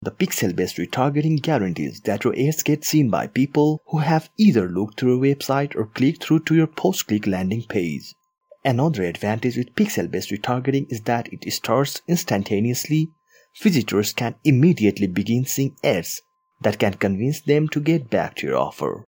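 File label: Nepali, English, male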